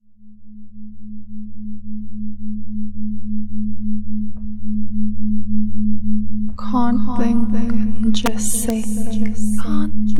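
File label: Female, haptics; F4A; Brainfog Loop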